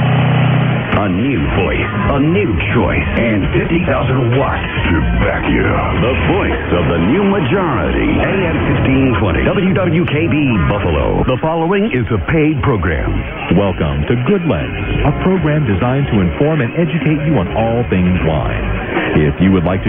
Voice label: Idiotic silly sounding commercial advising against using a petrol generator; BUFFALO, NY; perseus; 1520; WWKB